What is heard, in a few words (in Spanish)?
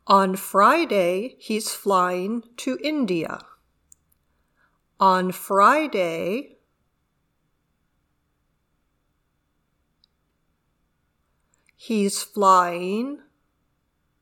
Dictado